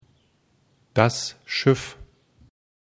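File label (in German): "schiff", German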